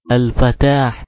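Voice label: "الفتاح", Arabic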